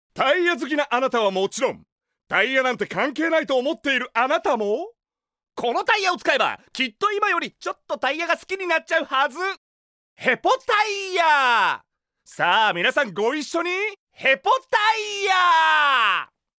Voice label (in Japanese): ナレーション